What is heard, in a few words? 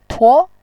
"tuo2", voice